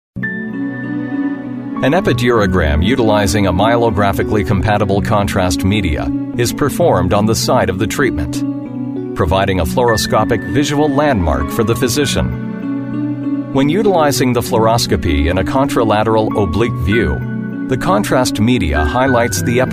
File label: english american others; Voz masculina "neutral en inglés norteamericano" (sin acento regional).